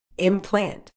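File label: "implant", British English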